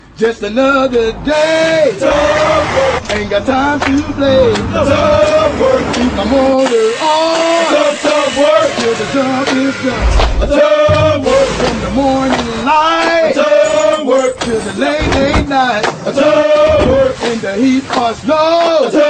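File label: volkswagen commercial; ringtones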